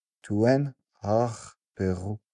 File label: male garrison, voice napatan civ